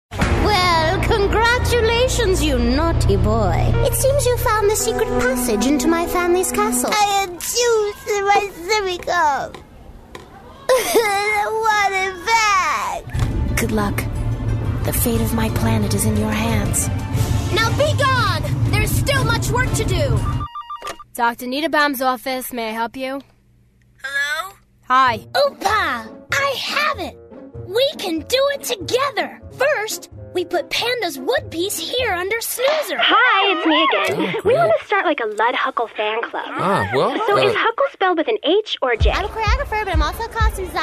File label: american female animation